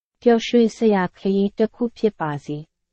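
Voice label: Burmese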